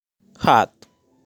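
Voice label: "Hot", pronunciation; weather; Clima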